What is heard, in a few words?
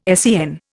"SEN", Indian